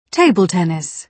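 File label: "table tennis", British English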